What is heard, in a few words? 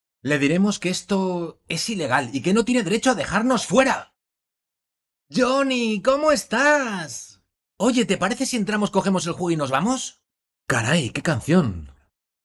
locutor doblaje spanish voiceover